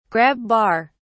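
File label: "grab bar", English, British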